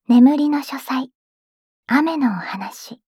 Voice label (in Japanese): タイトルコール